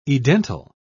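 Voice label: "edental", British English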